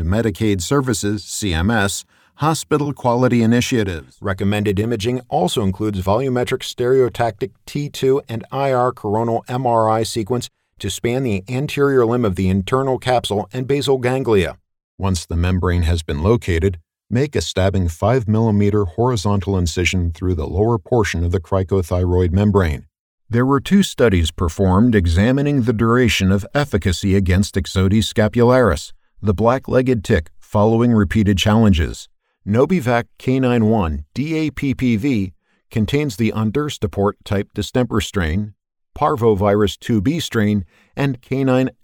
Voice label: Male Voice Over Medical Narration